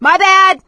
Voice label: witch chasing; source engine; left 4 dead 2; survivor; producer